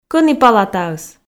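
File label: "кӧні палатаыс", Komi, female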